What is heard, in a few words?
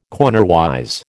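"cornerwise", American English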